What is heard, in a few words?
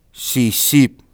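"sisip", Cree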